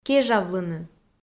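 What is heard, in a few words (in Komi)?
"кежавлыны", Komi, female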